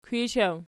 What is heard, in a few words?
"kwishaw", Archi